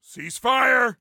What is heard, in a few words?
Team Cease Fire, Soldier Male; Soldier Male 03